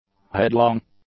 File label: "headlong", English; adjectives